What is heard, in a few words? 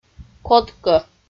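"кодкӧ" Komi, female; audio dict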